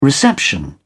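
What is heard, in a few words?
"reception", British English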